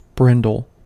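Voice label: "brindle", US English; pronunciations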